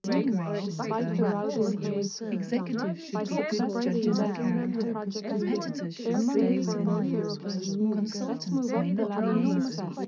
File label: female mix; SiSEC10; underdetermined